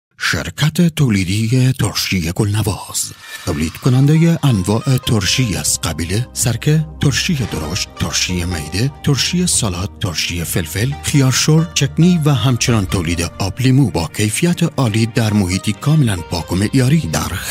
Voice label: commercial messages